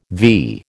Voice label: "vee", American